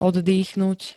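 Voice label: "oddýchnuť", Slovak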